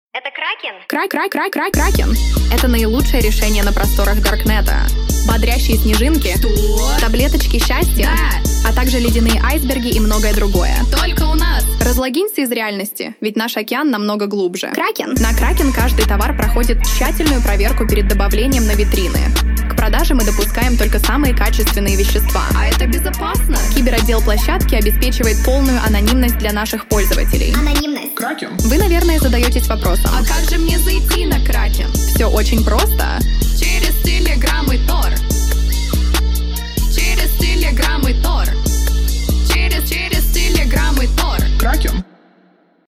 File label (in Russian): reklama; kraken